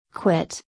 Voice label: "Quit" voice